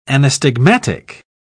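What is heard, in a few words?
"anastigmatic", English, British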